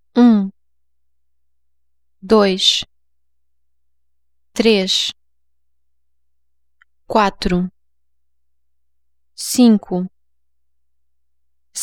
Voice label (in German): portugiesisch